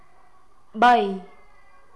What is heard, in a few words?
khmer three